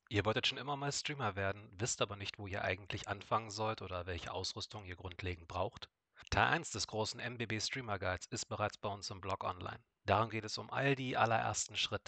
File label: Mic Sample; Razer Kranken V3 HyperSense